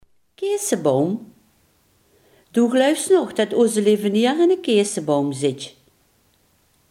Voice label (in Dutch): "kersenboom", Limburgs